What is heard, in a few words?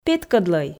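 "петкӧдлӧй", Komi, female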